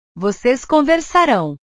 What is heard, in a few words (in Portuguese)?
"voces conversarao", portugues; portugues/verbos